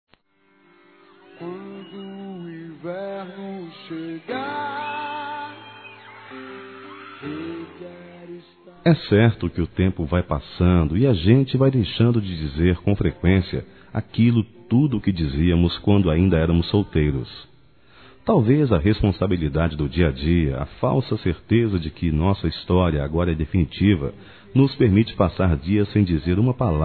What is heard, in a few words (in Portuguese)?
ROMANTICO, Telemensagens; P-CASAIS; Telemensagens 2017; Românticas